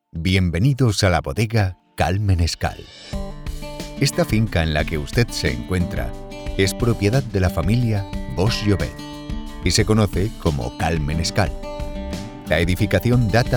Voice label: Tour Guide, deep, trustworthy, confident Male Voice; Cal Menescal Wines